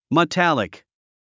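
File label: "metallic", English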